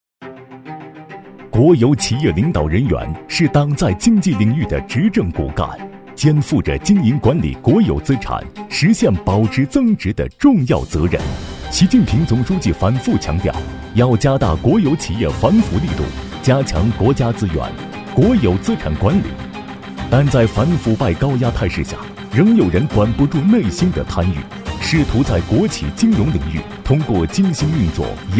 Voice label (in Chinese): 男 反腐警示; 王炳棋严重违纪违法案